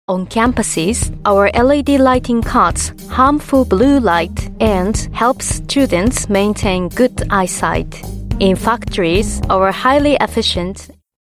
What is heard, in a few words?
Japanese